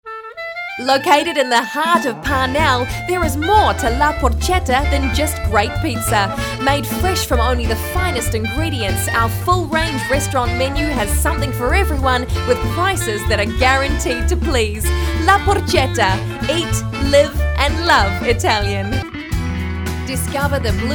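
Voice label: english New Zealand female commercial; voice demos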